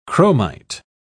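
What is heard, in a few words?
"chromite", British English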